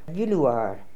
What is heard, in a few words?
"Vilooar", pronounciations; Fourth Way; Beelzebub